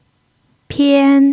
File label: "pian1", Chinese